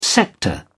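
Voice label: "sector", British English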